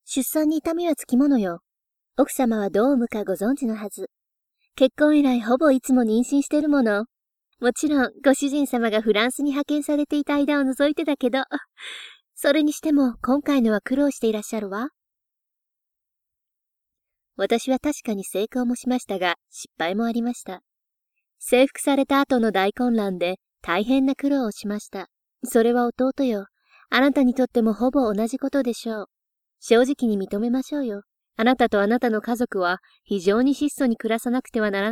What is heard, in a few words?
Anime